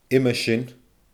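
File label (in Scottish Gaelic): "uime sin", Scottish Gaelic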